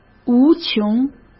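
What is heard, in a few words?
"无穷", Mandarin Chinese; chinese-flashcards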